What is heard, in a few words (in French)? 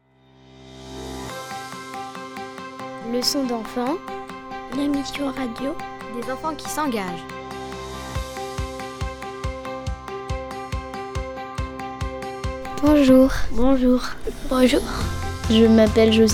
Message d'accueil; Peter Pan; belgique benin